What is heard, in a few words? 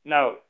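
Voice Acting, Spanish, male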